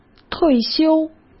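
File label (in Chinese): "退休", Mandarin Chinese; chinese-flashcards; hsk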